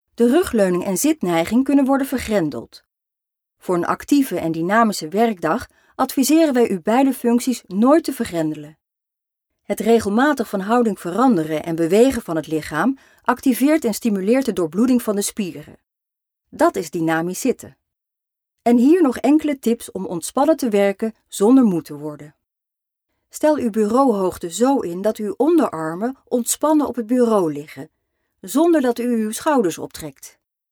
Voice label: Uitlegvideo

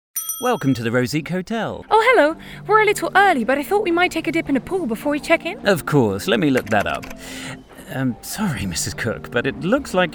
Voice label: Engels dialoog

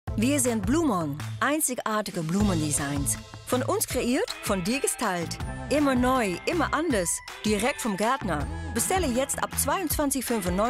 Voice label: TVC Duits; Bloomon

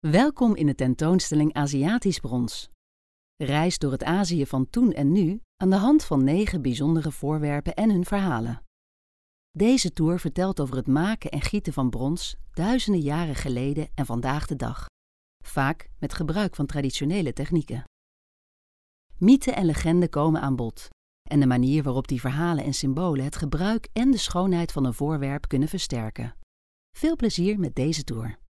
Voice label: Intro audiotour; Aziatisch Brons Rijksmuseum

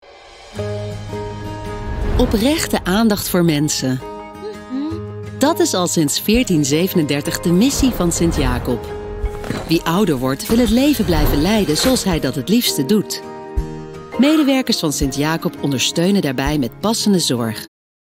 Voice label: vriendelijk; Sint-Jacob

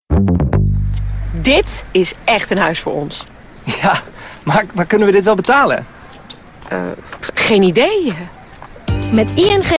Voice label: dialoog; ING

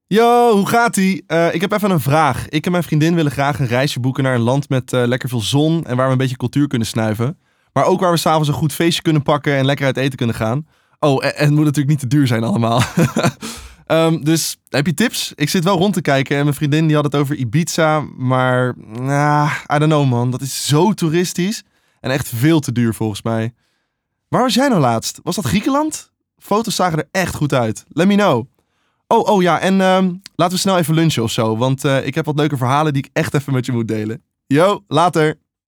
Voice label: Naturel

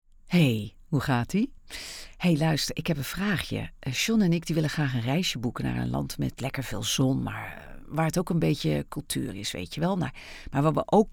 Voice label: naturel; Griekseiland

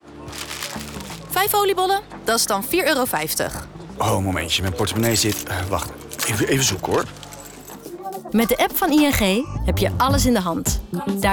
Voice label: Oliebolen verkoopster dialoog; ING

